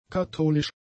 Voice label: "katholisch", German; adjectives

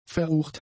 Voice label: "verrucht", german; adjectives

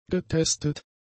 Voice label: "getestet", german; adjectives

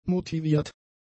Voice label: "motiviert", German; adjectives

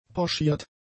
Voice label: "pochiert", German; adjectives

